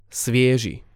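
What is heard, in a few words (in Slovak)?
"sviezi", Slovak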